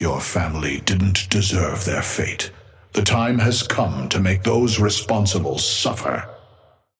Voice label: male ally orion start; Patron